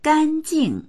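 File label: "gan1jing4", Mandarin Chinese; gānjìng; 干净; 清潔である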